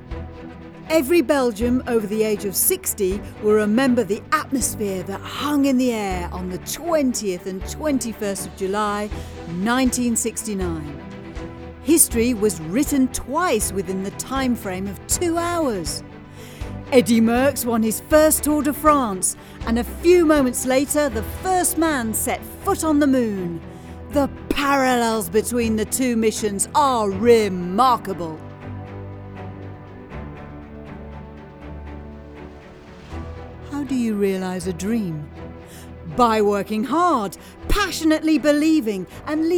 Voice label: Narration Reel; Eddy Merckx

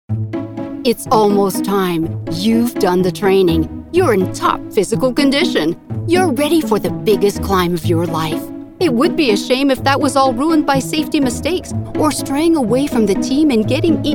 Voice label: E LEARNING

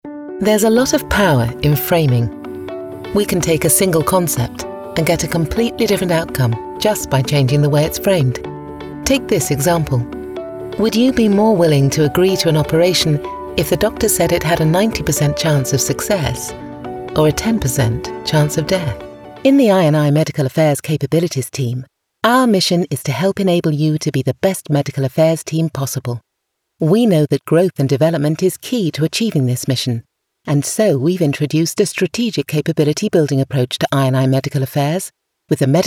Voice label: explainer british voiceover

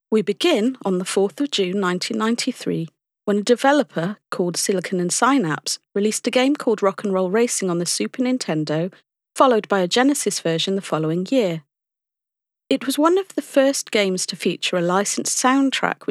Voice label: Narration

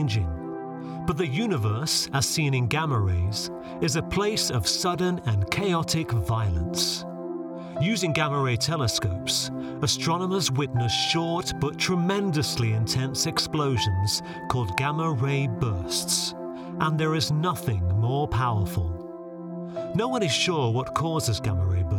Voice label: Narration

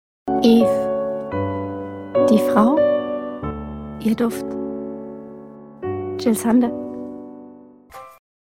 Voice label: COMMERCIAL German; Jil Sander

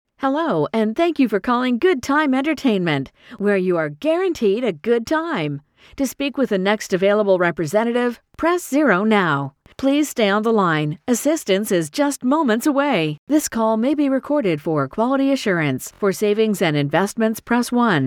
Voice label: IVR phone

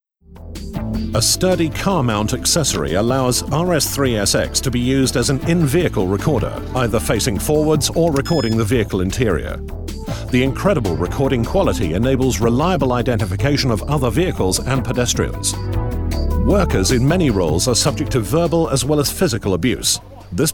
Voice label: Voice Over Showreel Narration

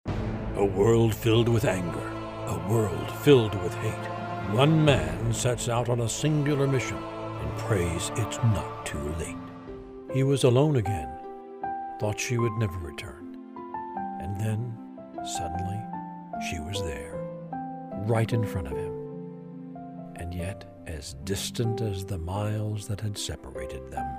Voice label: Movie Trailer Hero Romance

